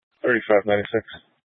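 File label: Police 1 Dispatch, Scanner audio recording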